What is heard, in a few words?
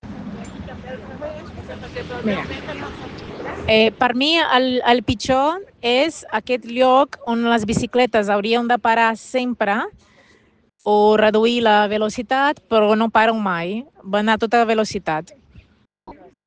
Voice message